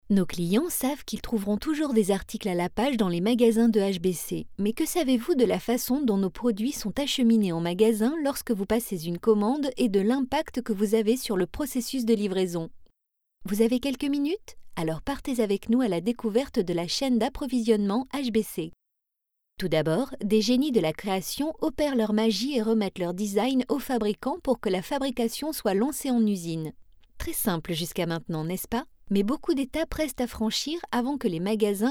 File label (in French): SERIEUX EXPLICATIF, INSTITUTIONNEL; HBC